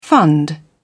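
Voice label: "fund", British English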